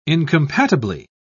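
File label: "incompatibly", British English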